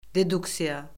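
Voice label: "deduksiya", Azerbaijani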